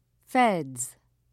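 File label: "feds", British English, dictionary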